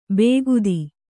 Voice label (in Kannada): "ಬೇಗುದಿ", Kannada